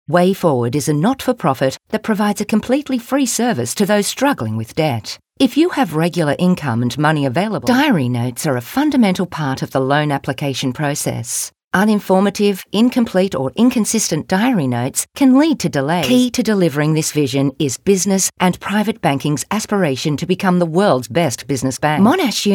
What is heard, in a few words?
Corporate